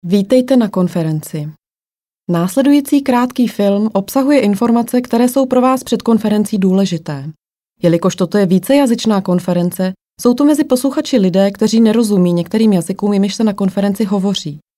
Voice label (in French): tcheque